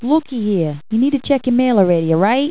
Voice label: Italian